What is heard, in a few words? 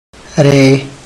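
there, Tlacochahuaya Zapotec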